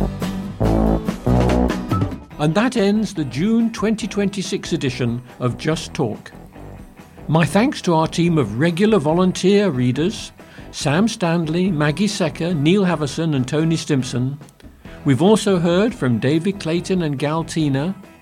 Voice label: Closing Announcement; lets talk; just talk edition 40 june 2026